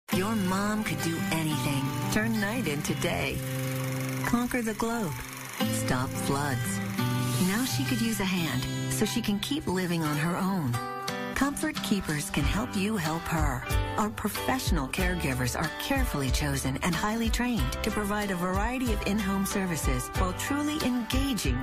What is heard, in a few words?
caring